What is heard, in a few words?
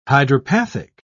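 "hydropathic", British English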